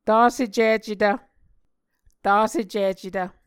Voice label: "tasecicetv", Muscogee